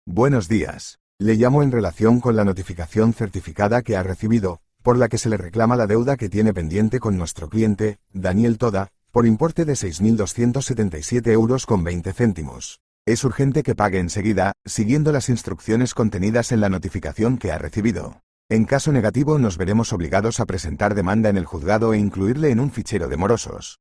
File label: audio call